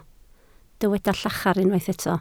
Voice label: "llachar", Welsh